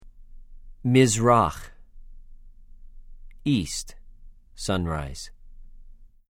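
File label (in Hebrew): "מִזְרָח", Hebrew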